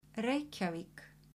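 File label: "Reykjavík", Icelandic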